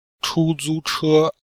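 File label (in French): chu zu che, Chinese, Vocabulaire et phonétique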